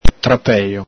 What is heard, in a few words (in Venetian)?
"trapelo", Veneto; trapèƚo; ENG: device, contrivance; ITA: ordigno, arnese, marchingegno; el Galepin